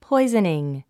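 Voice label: "poisoning", English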